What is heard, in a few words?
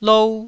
"lou1", Taiwanese